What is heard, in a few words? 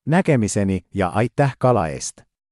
"so long", Estonian